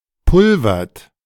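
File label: "pulvert", German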